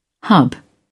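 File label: "hub", English, uk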